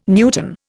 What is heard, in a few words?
"newton", Indian